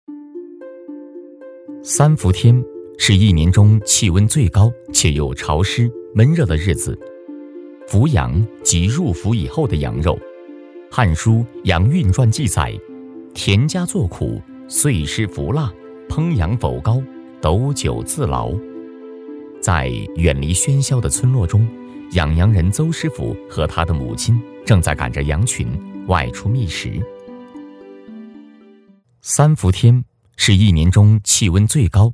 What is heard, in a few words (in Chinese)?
男; 优质年轻